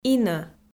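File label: "инӧ", Komi-Zyrian, female; audio dict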